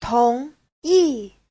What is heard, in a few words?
"tong2yi4", Chinese; 同意; Giáo trình chuẩn HSK3